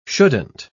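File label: "shouldn't", British English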